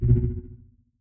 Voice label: Robo Nope; 16bit